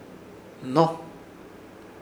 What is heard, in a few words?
"Hno", Ishir Chamacoco